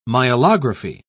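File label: "myelography", British English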